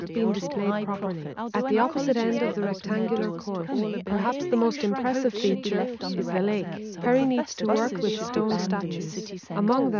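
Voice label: female, instantaneous, mix; SiSEC11; underdetermined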